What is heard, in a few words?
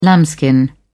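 "lambskin", British English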